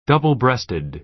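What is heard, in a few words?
"double breasted", American English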